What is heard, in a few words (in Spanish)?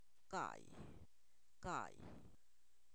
cinco, Zapoteco, san miguel albarradas; numeros